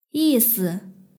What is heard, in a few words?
"yisi", Chinese; hsk2